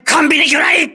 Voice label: voice message torpedo rear, crew ships japanese